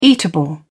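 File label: "eatable", British English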